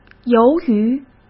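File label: "由于", Mandarin Chinese; chinese flashcards; hsk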